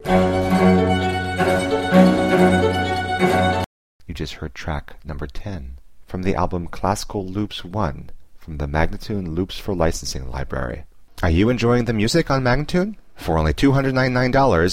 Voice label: american baroque spoken